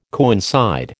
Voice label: "coincide", American